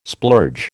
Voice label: "splurge", American

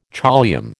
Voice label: "choliamb", American

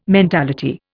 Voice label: "mentality", Indian

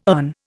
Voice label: "earn", Indian

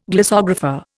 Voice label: "glossographer", Indian

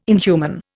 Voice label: "inhuman", Indian

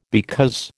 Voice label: "because", American

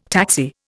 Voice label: "taxi", Indian

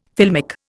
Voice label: "filmic", Indian

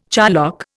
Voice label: "charlock", Indian

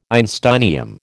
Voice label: "einsteinium", American

